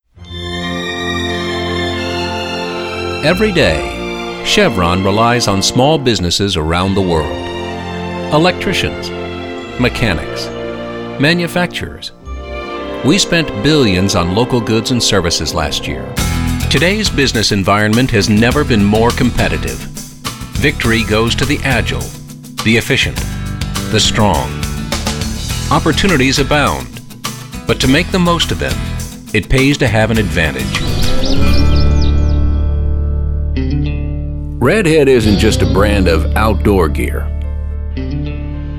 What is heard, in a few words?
Narrator